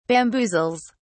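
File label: "bamboozles", British English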